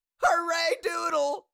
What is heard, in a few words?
Cartoon little monster voice "hooraydoodle"